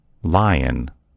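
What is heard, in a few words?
"lion", American English; gcompris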